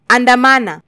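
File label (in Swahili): "andamana", Swahili, Kenya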